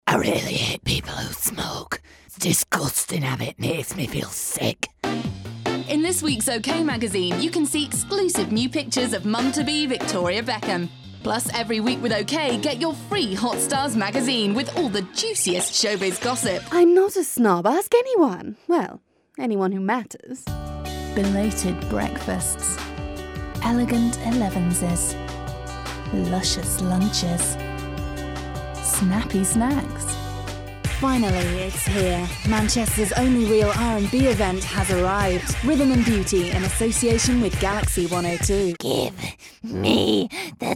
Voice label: british female